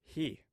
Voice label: "hi", omaha language